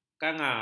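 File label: "kangar", Malay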